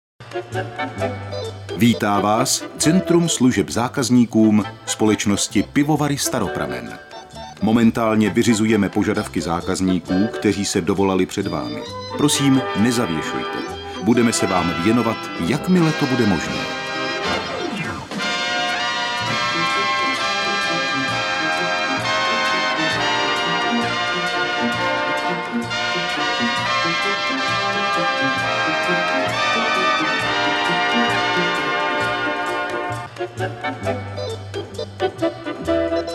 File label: Male Czech IVR